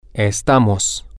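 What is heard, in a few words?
we are, Spanish, male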